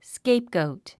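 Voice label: "scapegoat", English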